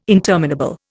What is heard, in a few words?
"interminable", Indian